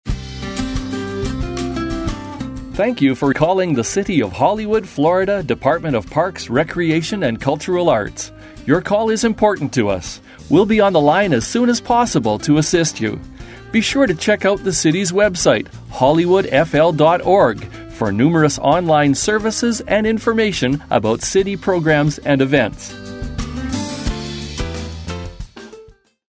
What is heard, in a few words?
On Hold